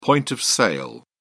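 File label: "point-of-sale", British English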